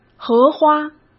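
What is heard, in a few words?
"荷花", Mandarin Chinese; chinese-flashcards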